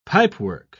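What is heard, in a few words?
"pipework", British English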